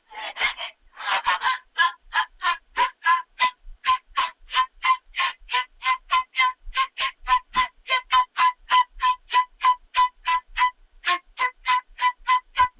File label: Voice Acting, es, F0